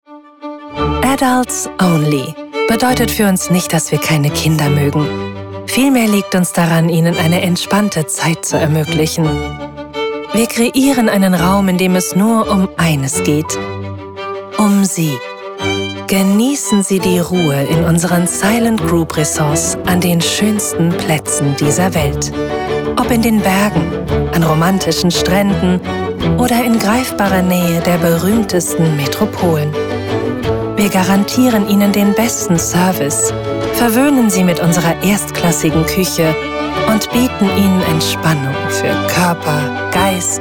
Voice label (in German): Imagefilm Hotelgruppe